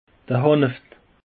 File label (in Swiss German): "hanef", alsace; peche